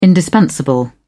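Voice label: "indispensable", British English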